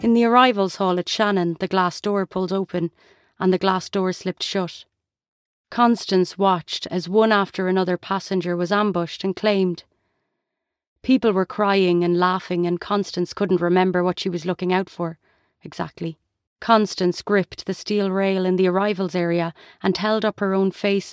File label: VO Audiobook